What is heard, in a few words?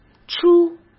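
"初", Mandarin Chinese; hsk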